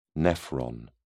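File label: "nephron", British English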